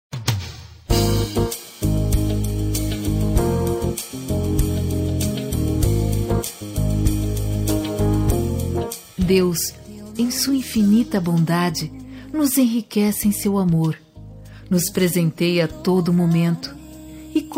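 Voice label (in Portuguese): Evangélica Aniversário de Primo, female, telemensagens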